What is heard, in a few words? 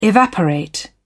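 "evaporate", British English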